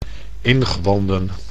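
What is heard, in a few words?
"ingewanden", Dutch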